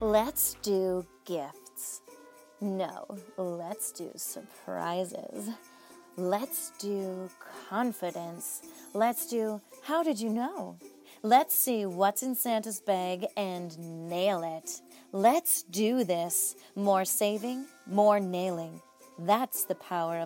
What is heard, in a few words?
Sexy Christmas Commercial Lets Do This